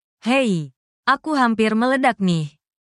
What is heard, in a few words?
indonesian phrases